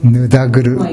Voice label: "nudaguru", minamiyamagata

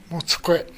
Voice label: "montukoe", minamiyamagata

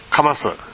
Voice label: "kamasu", minamiyamagata

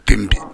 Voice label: "denbi", minamiyamagata

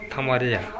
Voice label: "tamariya", minamiyamagata

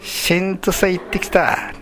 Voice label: "sentu", minamiyamagata

